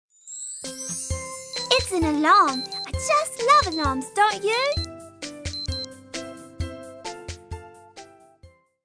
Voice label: British Cutie I Love Alarms